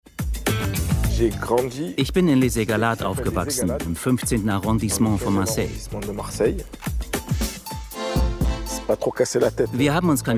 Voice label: soprano disney